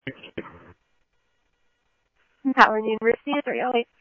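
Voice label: Mesa police department, scanner audio trunk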